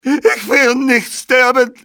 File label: dont wanna die, civ male; XBOX